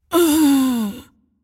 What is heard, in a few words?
dying, en US; Judicator; Character Voices - English